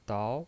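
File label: "dao", Mandarin Chinese, second tone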